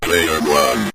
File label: player 1, Synth Voice; Kenney's Sound Pack